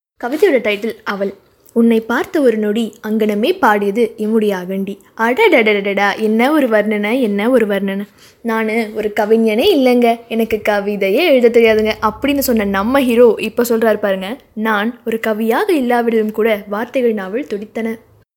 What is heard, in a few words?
Tamil, Female